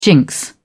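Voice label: "jinx", British English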